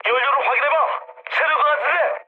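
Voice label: Korean tank damaged mood med, speech; War Thunder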